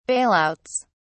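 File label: "bailouts", British English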